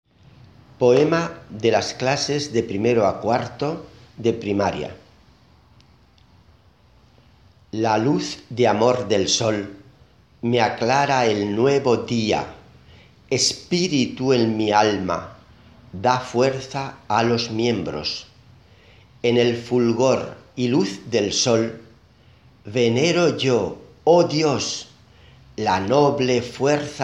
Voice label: Morgenspruch Spanisch

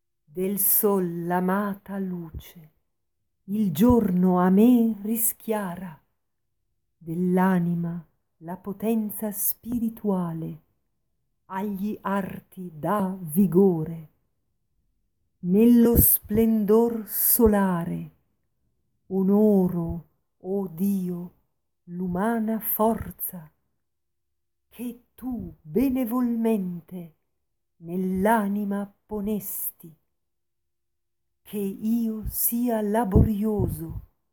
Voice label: Morgenspruch ITALIAN; ITALY; BOLOGNA WALDORF SCHOOL

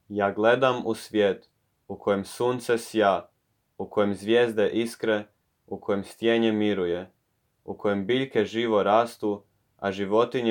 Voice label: Morgenspruch Kroatien kroatisch; Waldorfska Skola U Zagrebu

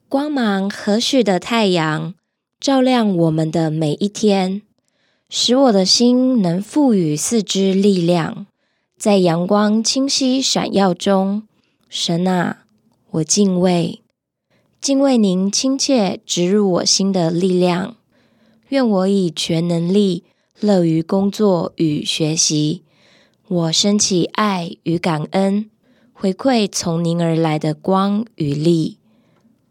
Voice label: Morgenspruch Taiwanese Morning verse

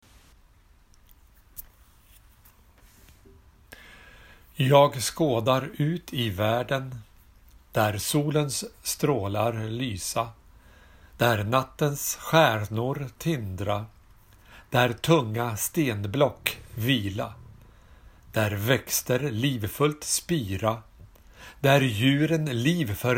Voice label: Morgenspruch Schweden Schwedish Västerås Waldorfskola

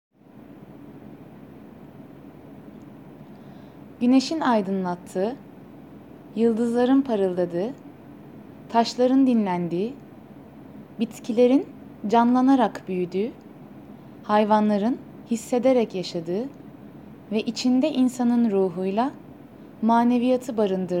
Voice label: Morgenspruch Türkei türkisch; Waldorf Alanya

